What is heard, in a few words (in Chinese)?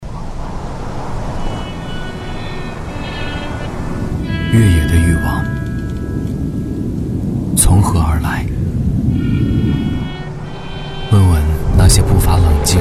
男 广告 TVC; 福特猛禽